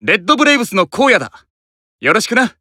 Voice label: Emo Warcry